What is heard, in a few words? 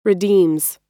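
"redeems", US English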